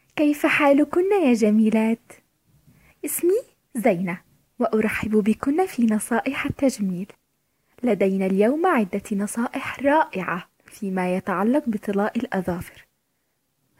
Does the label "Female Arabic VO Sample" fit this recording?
Yes